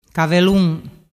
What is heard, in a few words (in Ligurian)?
"cavelun", dialettu